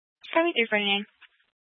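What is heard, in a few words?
Sheriff Dispatch, scanner audio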